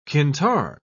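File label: "qintar", British English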